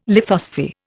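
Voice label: "lithosphere", Indian English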